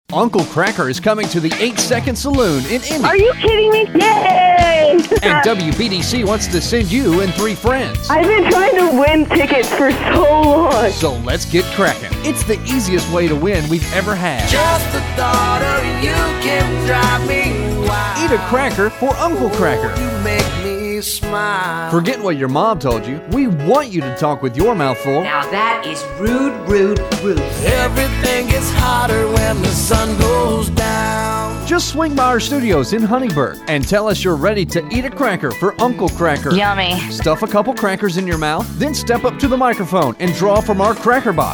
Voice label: Promo